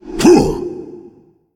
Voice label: Male Jailer Attack

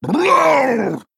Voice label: Male Tuskarr Attack

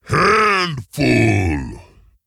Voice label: Ogre Emote ERROR HAND FULL; Boulderfist

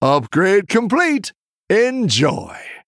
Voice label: Male Human

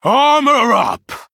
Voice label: Male Human Hero Power; Cornelius; Cariel Mission3

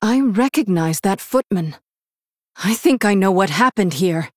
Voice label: Female Human; Hero; Jaina; Rexxar Mission5 Exchange

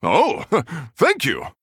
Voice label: Male Human Thanks

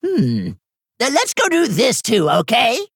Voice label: Male Gnome Bark

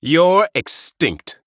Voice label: Male Night Elf Attack

